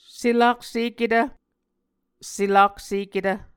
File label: "selakseketv", Muscogee